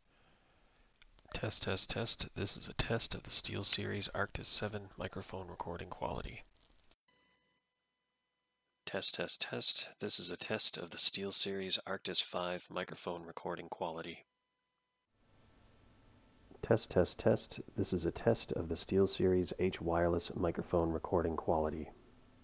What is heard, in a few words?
SteelSeries Arctis 7 Recording Quality